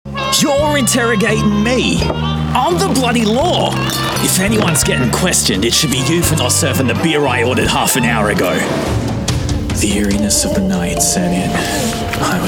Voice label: Animation